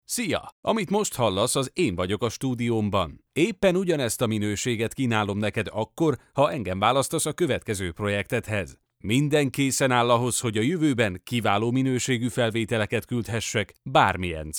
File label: hungarian male